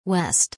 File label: "West", English